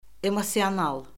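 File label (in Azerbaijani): "emosional", Azerbaijani; Orfoqrafiya Lüğəti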